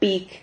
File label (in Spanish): "pig", Silabas